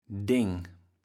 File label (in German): "Deng", platt